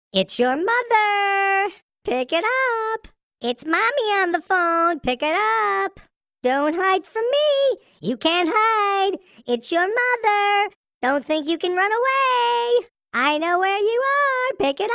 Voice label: MOM CALLING FUNNY RINGTONE